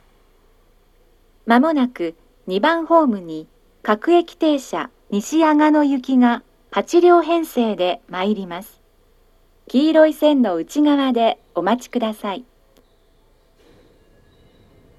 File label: hanno local nishi agano; station